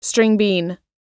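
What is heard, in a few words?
"string bean", US English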